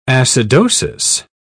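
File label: "acidosis", British English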